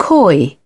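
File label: "coy", British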